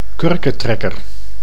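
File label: "kurketrekker", Dutch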